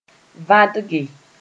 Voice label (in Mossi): "vãdge", moore